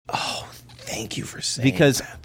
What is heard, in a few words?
quietly saying oh thank you for saying that